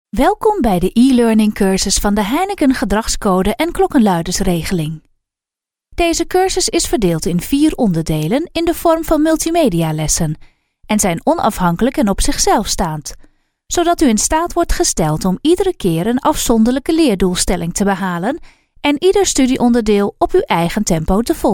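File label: NL F elearning, Dutch, female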